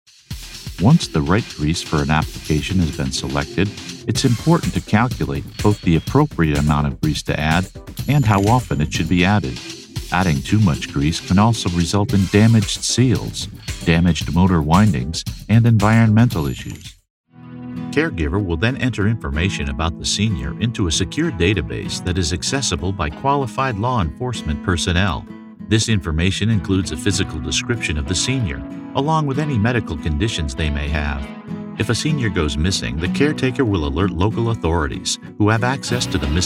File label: Explainer Video